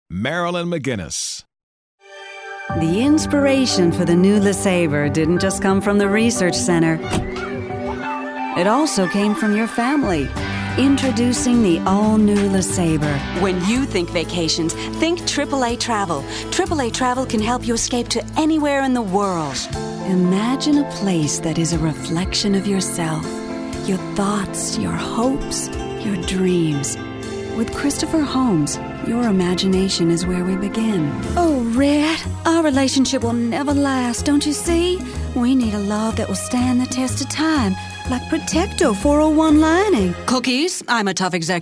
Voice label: Commercial